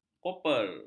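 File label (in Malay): "koper", Malay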